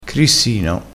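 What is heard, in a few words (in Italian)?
"crissino", vocabolario dialettale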